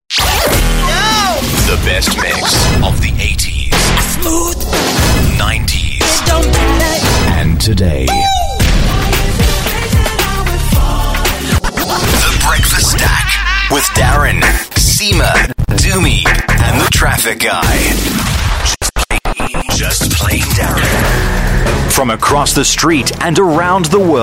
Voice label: African English Radio Imaging Promo, South African Male